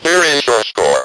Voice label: here is your score, Speak and Spell